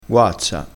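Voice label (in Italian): "guazza", crespinese dialettale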